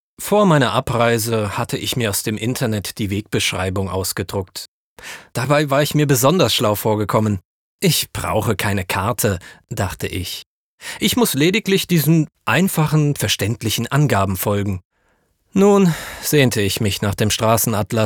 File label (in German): Sprachdemo Hoerbuch